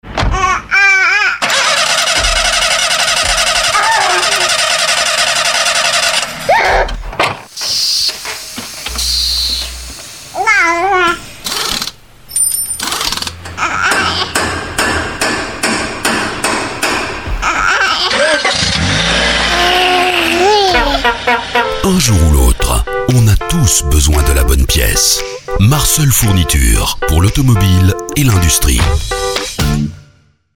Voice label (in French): radio pub spot; automobiles